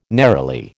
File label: "neroli", American